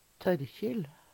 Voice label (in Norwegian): "Tørkjil", Numedalsmål; Særnamn (personnamn); Torkel, Torkild